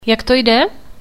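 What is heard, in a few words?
How Is It Going, Czech; How's it going?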